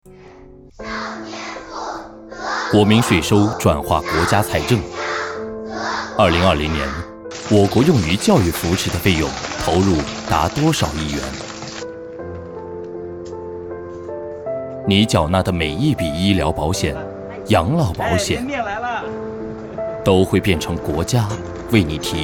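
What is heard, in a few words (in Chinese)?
纳税宣传片未加噪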